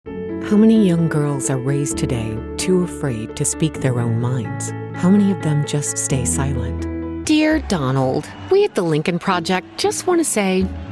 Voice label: Voiceover Commercial Women; Chicago